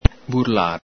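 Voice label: "burlare", Veneto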